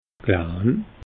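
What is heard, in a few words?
"klein", Alsatian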